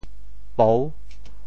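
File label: "pou6", Teochew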